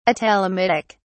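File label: "atelomitic", English, uk British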